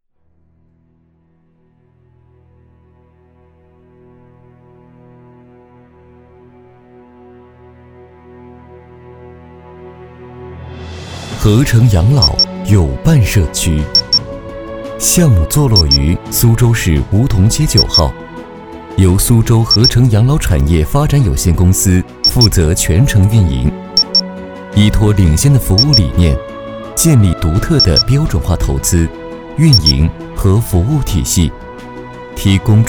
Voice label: 宣传片大气; 和城养老

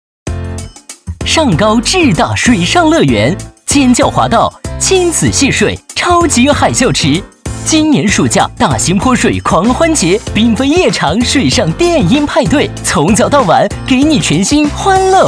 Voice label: 时尚活力; 上高至大水上乐园

